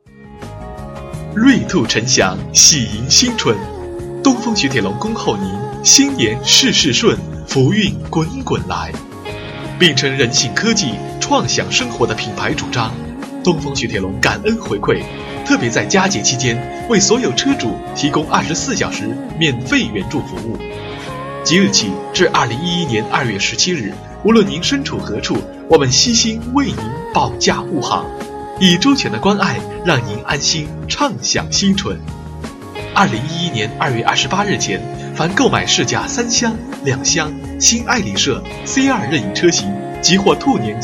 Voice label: boy car, Chinese, guanggao